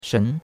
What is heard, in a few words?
"shen2", chinois; Cours de Chinois